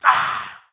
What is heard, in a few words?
german; Spec Ops 2